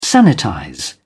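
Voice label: "sanitize", British English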